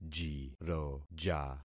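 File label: "jiroja", concatenated syllables; Affixing experiment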